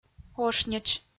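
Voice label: "ошнитш", Komi, female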